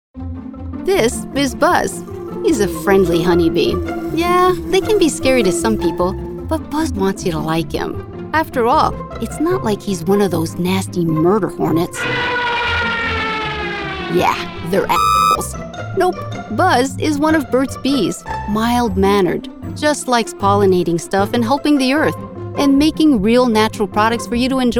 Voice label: EXPLAINER